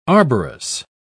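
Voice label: "arborous", British English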